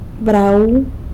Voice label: "brau" lizard; san lucas quiavini